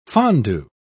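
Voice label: "fondu", British English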